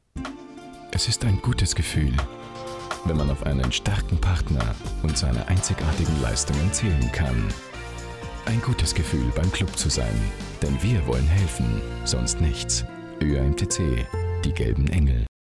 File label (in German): Mann